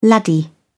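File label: "laddie", British English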